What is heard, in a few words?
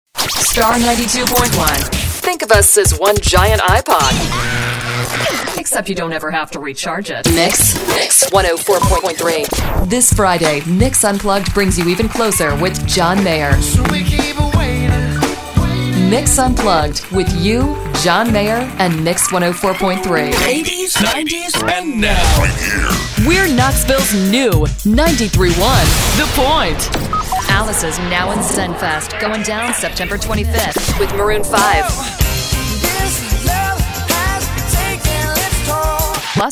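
radio imaging female hot adult contemporary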